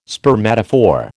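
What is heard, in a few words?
"spermatophore", American English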